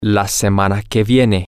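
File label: next week, Spanish, male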